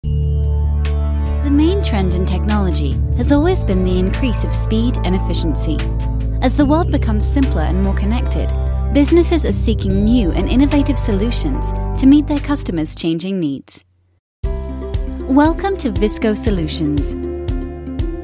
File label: US UK English Narration, Female